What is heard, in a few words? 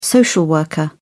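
"social worker", British English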